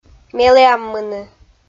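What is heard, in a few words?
"меліаммыны", Komi, female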